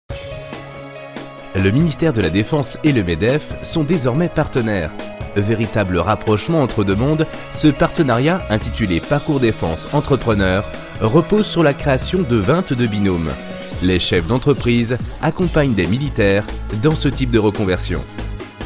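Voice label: French, male, narration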